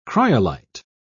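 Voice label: "cryolite", British English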